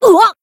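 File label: damaged, voice, Japanese; kid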